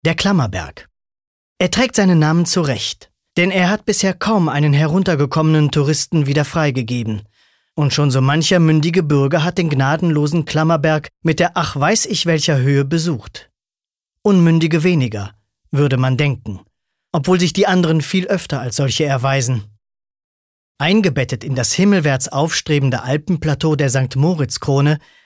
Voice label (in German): Kommentar, Neutral